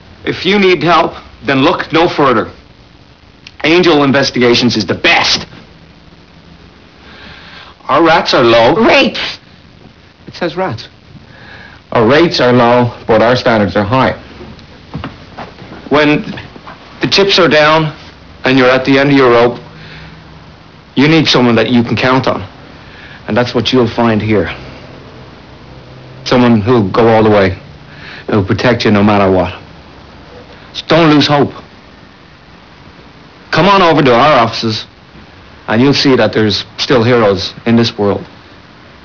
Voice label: commercial